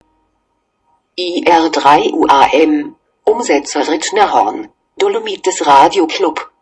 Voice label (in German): Kennung deutsch; Rittnerhorn